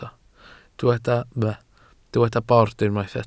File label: "bord", Welsh